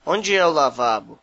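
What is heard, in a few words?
toilet, Brazilian Portuguese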